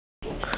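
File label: "shi2jian1", Mandarin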